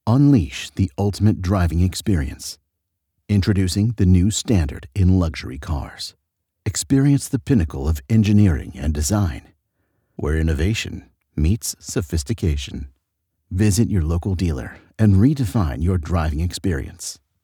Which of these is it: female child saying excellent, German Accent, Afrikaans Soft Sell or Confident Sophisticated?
Confident Sophisticated